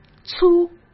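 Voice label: "粗", Mandarin Chinese; hsk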